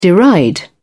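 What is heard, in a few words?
"deride", English, British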